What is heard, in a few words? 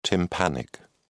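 "tympanic", English, British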